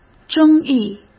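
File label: "争议", Mandarin Chinese; hsk; chinese-flashcards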